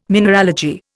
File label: "mineralogy", Indian